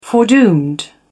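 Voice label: "foredoomed", en, uk British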